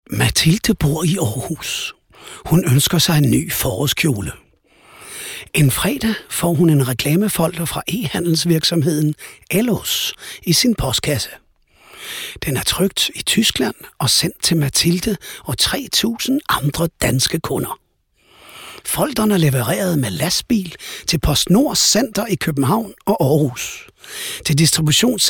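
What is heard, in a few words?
Danish, male, info; character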